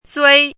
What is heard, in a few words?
"zui1", pinyin